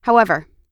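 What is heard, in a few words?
"however", US English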